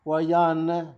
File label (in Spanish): "abundante", Zapoteco Magdalena Ocotlan; Adverbios; INALI; Haspelmath